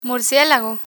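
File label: "murcielago" Spanish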